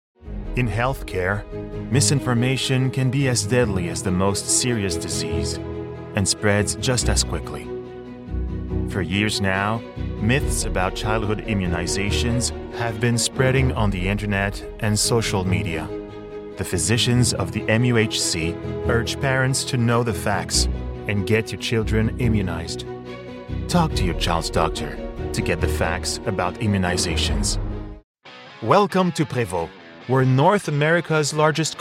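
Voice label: Canadian French, Accented English; voice demos; GoLocalise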